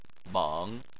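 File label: "meng", Chinese, third tone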